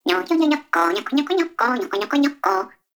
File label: Baby Vocal Spoken; Nokko; Warframe; Nokko Colony; Find Nokkos